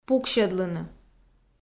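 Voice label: "пуксьӧдлыны", Komi, female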